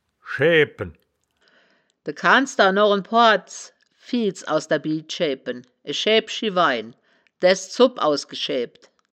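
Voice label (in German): "schaeaebpen", Wittlicher Wörterbuch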